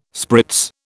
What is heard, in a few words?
"spritz", British